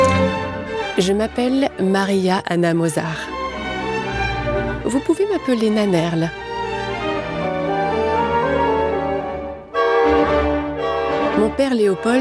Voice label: french campaign voice audio tour, French Female Voice - English Whispery